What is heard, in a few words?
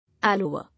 "Allover", English